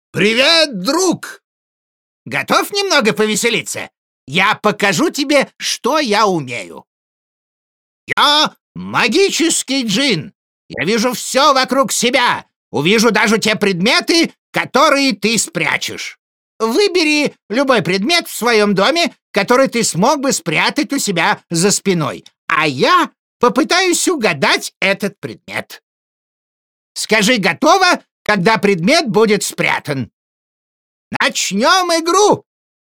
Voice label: rus

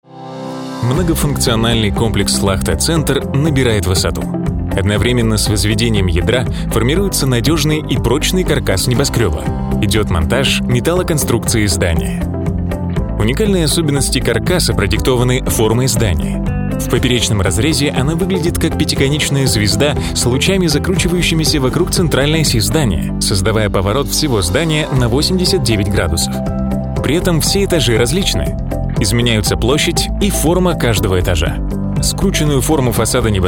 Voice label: rus

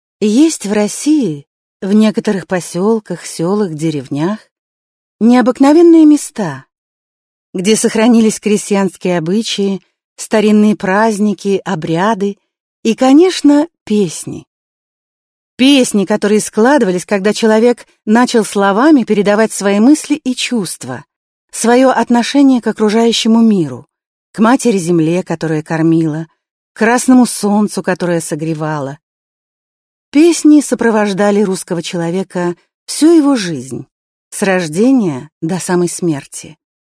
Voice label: russian female

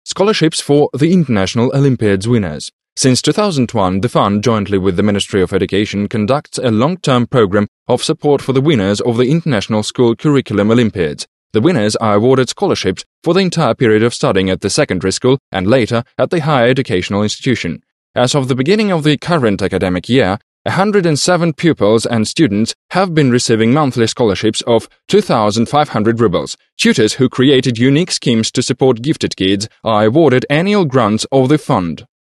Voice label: british english male

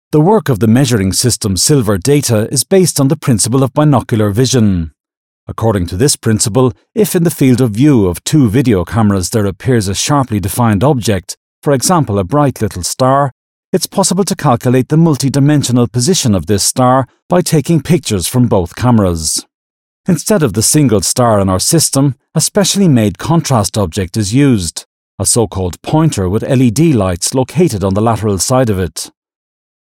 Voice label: british english male

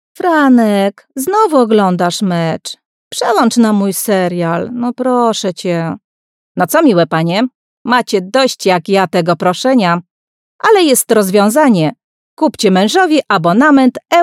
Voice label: polish female

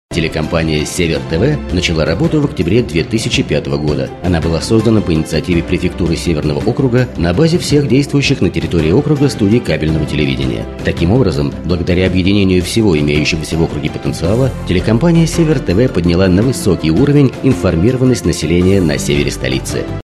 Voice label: russian male

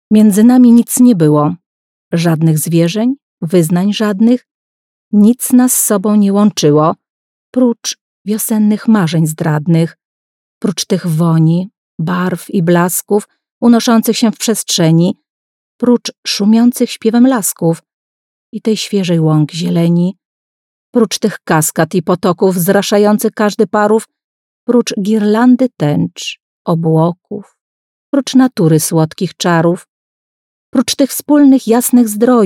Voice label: polish female

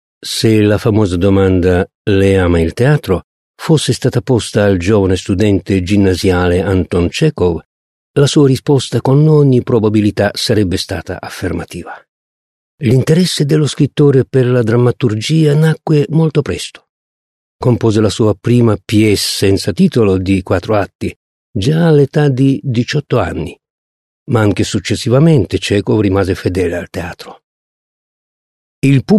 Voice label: italian male

